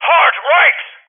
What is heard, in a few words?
Vocal Hard Right; Rally Champ